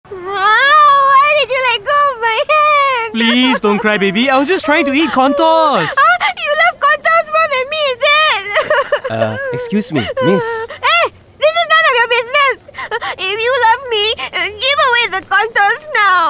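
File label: English; CORNTOZ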